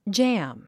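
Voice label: "jam", English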